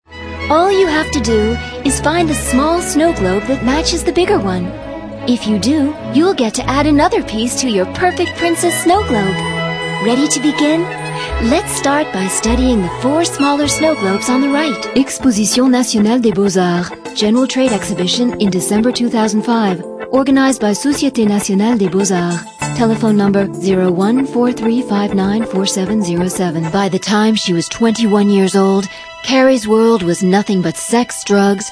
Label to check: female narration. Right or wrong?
Right